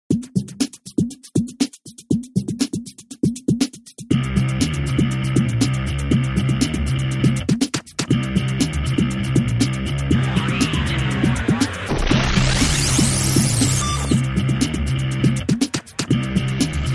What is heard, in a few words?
Interview; Garage Spiel